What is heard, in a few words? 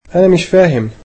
"I dont understand", egyptian